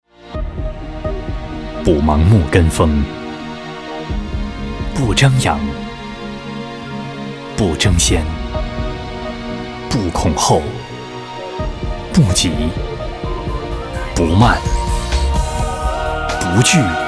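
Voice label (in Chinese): 男 汽车广告（品质感）; 温情旁白; 汽车广告 新明锐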